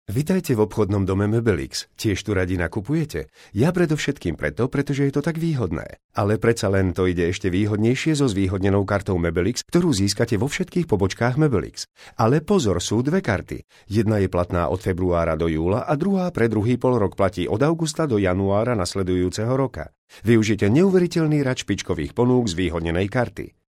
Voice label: male slovakian voice over talent narrative